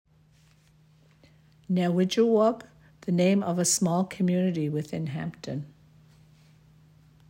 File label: English translation; Nauwigewauk; hampton community centre